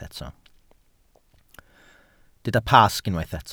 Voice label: "Pasg", Welsh

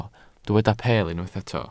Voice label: "peel", Welsh

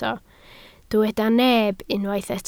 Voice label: "neb", Welsh

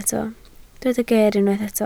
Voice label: "geer", Welsh

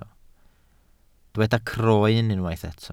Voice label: "croen", welsh

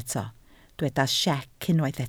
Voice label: "siec", Welsh

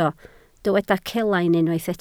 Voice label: "celain", Welsh

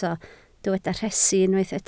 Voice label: "rhesi", Welsh

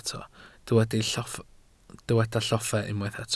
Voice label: "lloffa", Welsh

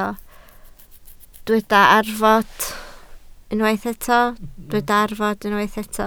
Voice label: "arfod", Welsh

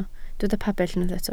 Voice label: "pabell", Welsh

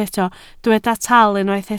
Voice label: "taal", Welsh

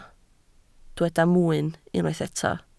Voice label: "mwyn", Welsh

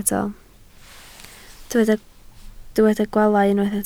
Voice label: "gwaalau", Welsh

